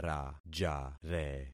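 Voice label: "rajare", concatenated syllables; Affixing experiment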